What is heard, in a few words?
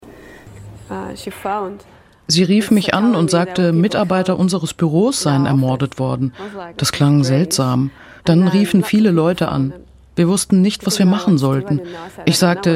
voice over; malatya